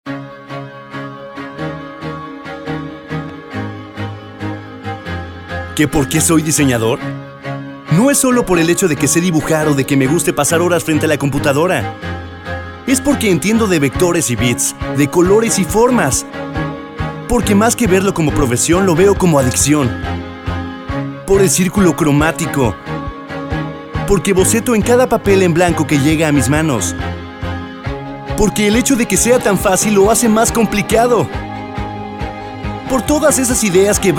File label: Male 25-35 years Medium Low, spanish south america